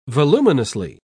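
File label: "voluminously", British English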